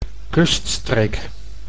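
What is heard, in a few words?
"kuststreek", Dutch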